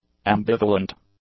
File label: "ambivalent", English; adjectives